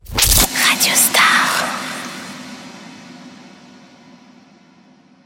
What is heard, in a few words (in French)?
ID SWEEP CHUCHOTE FEMALE; COMBLAGES RADIO STAR; PLEIN COEUR